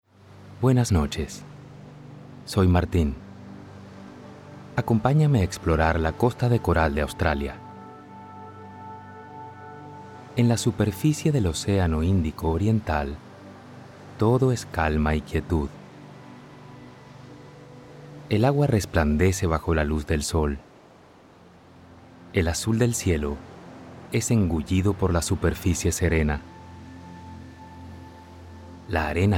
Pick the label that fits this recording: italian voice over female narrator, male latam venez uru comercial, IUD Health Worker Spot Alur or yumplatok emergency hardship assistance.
male latam venez uru comercial